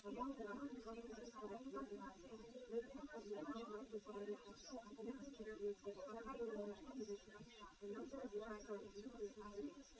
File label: female liverec; SiSEC11; underdetermined